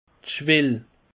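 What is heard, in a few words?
"schwell", Alsatian; peche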